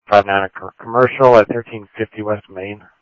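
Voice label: Mesa Police Department, scanner audio trunk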